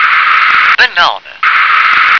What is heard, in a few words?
"banana", English